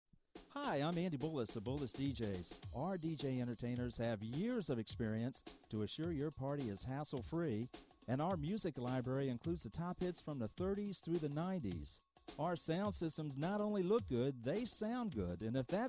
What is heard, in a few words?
Retro Commercial with DJ; Mobile DJ